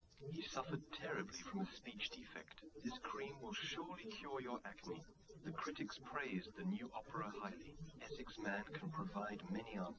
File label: test male liverec 130ms 5cm src; SiSEC08; SiSEC underdetermined